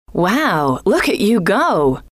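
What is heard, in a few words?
wow look at you go, female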